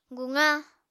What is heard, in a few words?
"guqa", Apatani